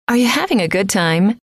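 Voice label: are you having a good time, female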